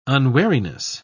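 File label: "unwariness", English, British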